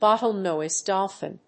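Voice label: "Bottlenose Dolphin", English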